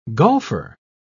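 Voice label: "golfer", British English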